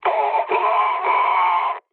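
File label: damaged pilot, mood low, French; War Thunder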